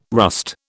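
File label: "rust", British English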